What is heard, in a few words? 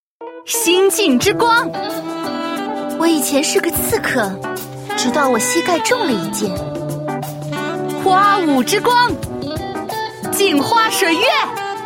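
female young woman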